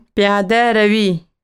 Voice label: "Walking", Dari

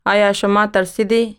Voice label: Dari Are you scared

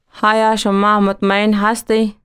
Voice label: dari Are you confident

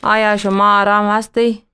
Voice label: dari Are you calm

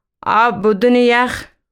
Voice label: Water without ice, Dari

